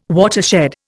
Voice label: "watershed", Indian

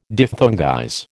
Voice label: "diphthongize", American

